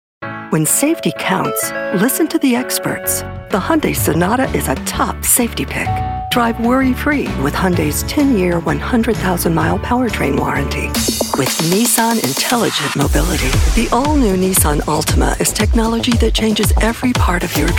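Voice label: English US, commercial; GoLocalise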